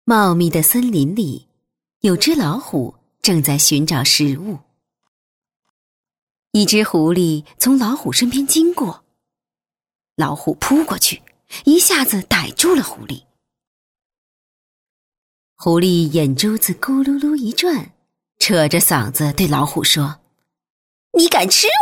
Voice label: 女 专题 童话 角色扮演, 国语女声配音; 狐假虎威

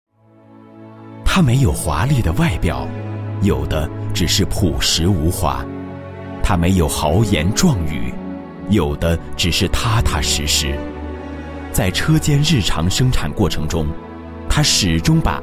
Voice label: 男 人物专题 温情, 国语男声配音; 车间员工介绍; 磁性专题

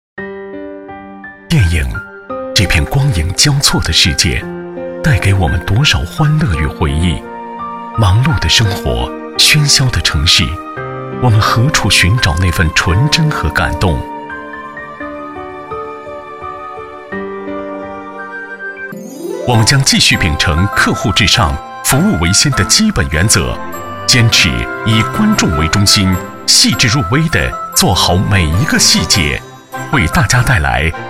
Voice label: 男 时尚; 央视大气专题; 天立影院

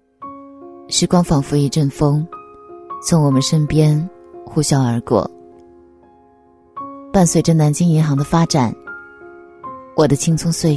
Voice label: 女 旁白独白; 南京银行

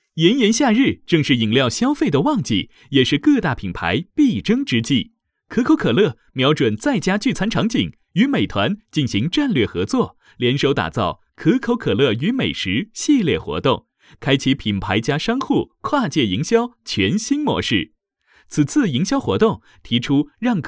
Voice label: 科技 小清新 广告; 让可口的变得更可乐

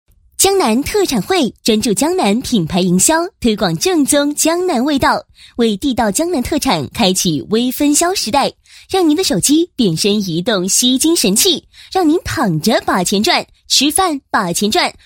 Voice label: 女, 品牌宣传; 江南特产惠

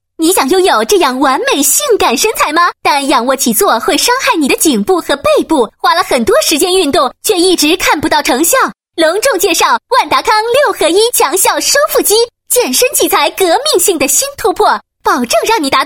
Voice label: 女 电购 旁白 角色, 国语女声配音; 万达康

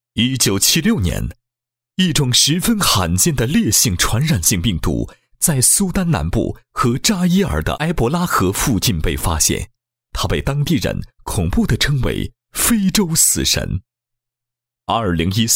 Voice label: 男, 宣传片; 捍卫生命的远征

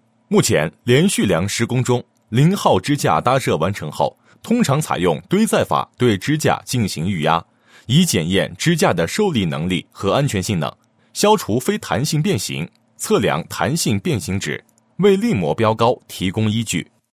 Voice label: 男 解说; 连续梁0号块千斤顶反力预压施工技术

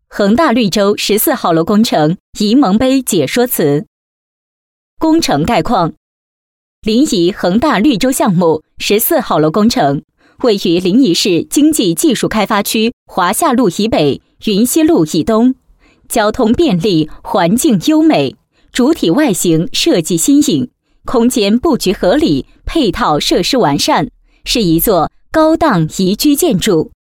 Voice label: 女 工程 解说; 14-楼工程沂蒙杯解说

